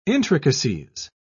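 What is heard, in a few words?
"intricacies", English, uk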